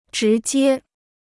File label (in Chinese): "直接", Chinese